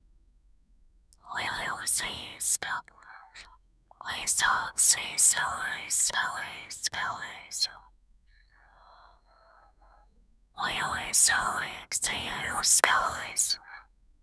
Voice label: whispering, whispers; mafia queen